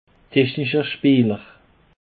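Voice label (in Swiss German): "technischer", Bas Rhin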